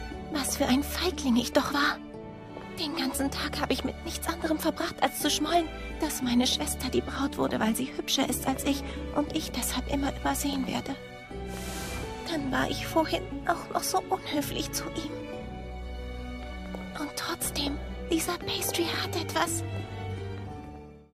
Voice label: Synchron - Trickfilm - traurig, bedrückt, Children's Voice (Kinderstimme), German; Lip-Sync (Synchron)

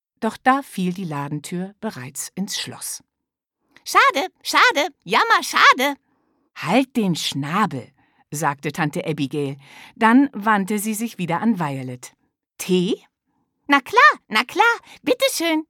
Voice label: Hoerbuch; Der magische Blumenladen

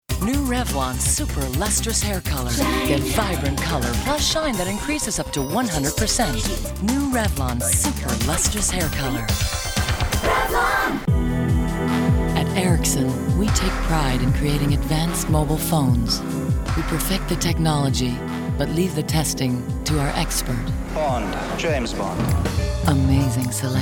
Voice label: off fremdsprache

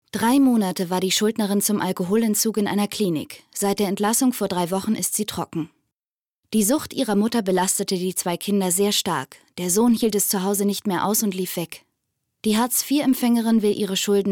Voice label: kommentar

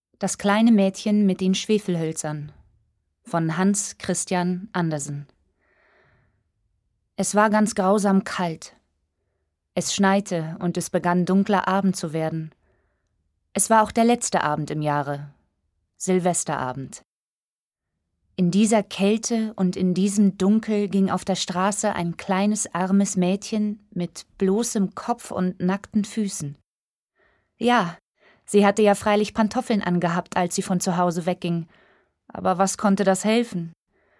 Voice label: Hörbuch; Schwefelhoelzer

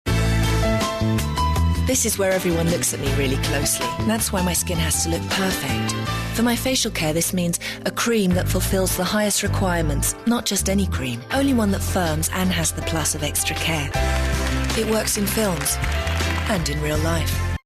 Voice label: uk englisch, speaker

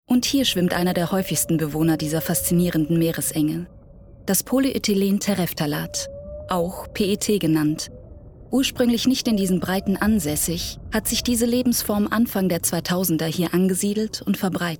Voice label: Doku; LOFT VOICES

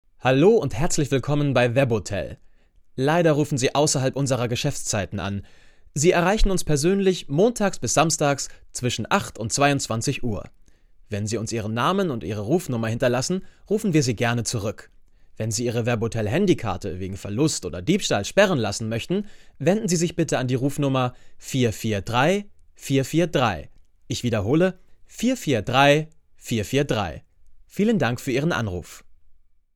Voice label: technische ansage anrufbeantworter

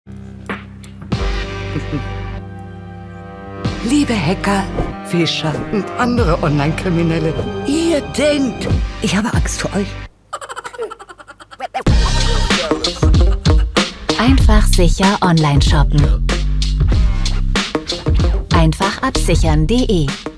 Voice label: Spot Onlineshopping; einfach aBSIchern

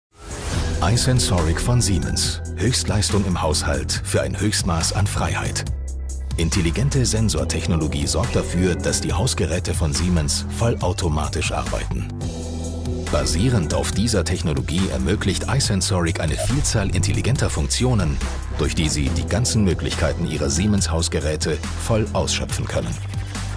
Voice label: kommentar

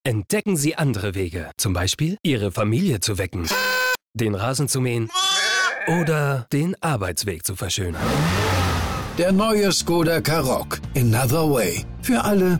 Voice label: funk spot tv adaption; skoda karoq

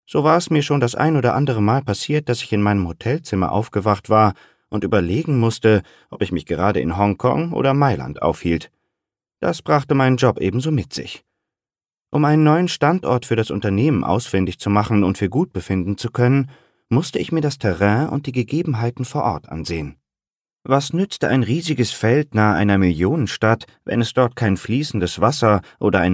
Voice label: Hoerbuch; Der besondere Zauber von Weihnachten

